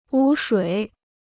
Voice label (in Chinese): "污水", Chinese